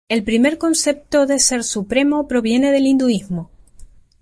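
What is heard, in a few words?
"supremo", Spanish; mozilla voice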